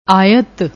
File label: "ayat", Punjabi; ਆਇਤ